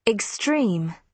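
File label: "extreme", British English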